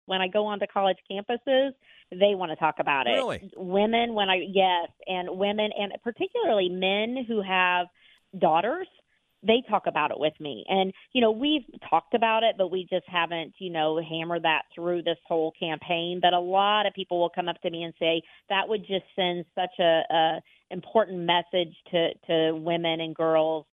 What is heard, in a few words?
FEMALE GOV